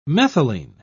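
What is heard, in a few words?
"methylene", English, British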